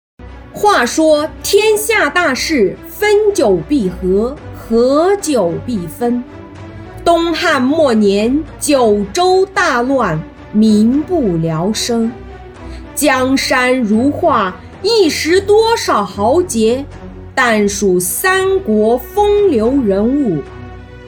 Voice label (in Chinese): 旁白浑厚低沉; 三国演义